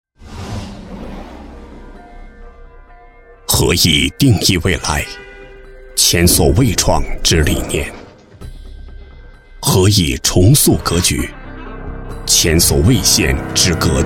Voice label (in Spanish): Locutor varón chino